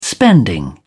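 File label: "spending", English, British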